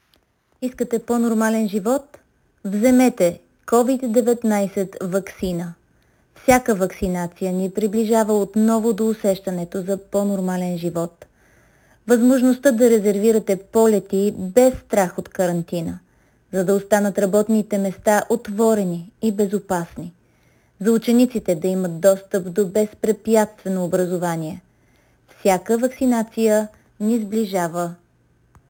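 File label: Vaccine Bulgarian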